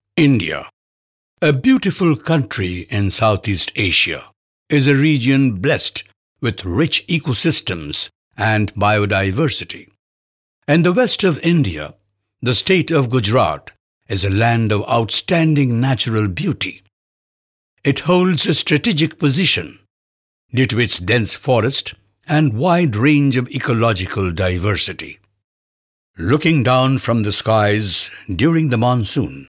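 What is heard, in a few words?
Bengali, male, English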